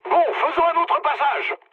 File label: bomb failure mood med, speech fr; War Thunder